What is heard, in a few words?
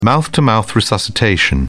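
"mouth to mouth", British English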